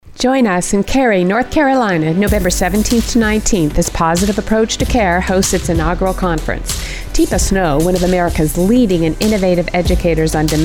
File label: voice conference promo vo